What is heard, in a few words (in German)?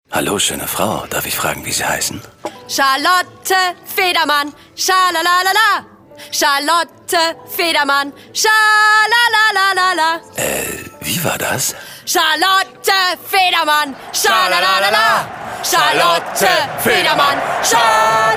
Werbung Hörfunk Fussball; Mercedes-Benz